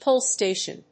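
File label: "pull station", English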